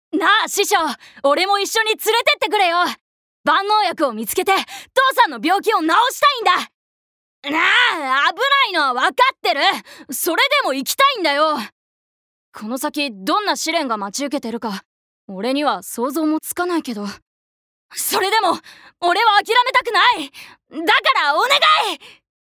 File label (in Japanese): アニメ